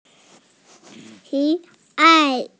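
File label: "xi3ai4", Mandarin Chinese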